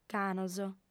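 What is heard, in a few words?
"canos", female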